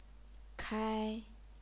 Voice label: "kai1", chinese pinyin